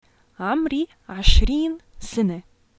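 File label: I am 20 years old, Syrian arabic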